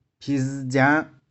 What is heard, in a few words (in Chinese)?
"phi3zy1jiang5", 玉環